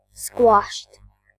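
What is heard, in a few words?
"squashed", British English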